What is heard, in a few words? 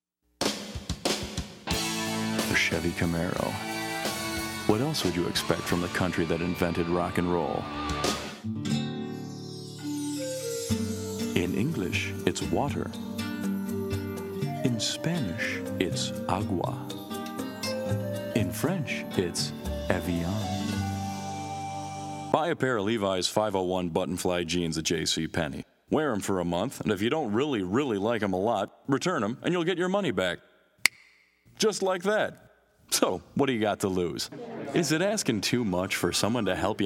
VoiceOver; Demo